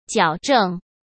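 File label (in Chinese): "矫正", Mandarin Chinese; hsk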